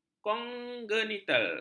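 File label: "kongenital", Malay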